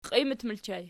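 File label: "qiimat" ergative plural, Archi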